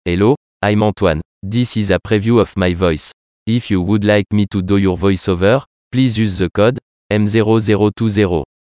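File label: French, male; France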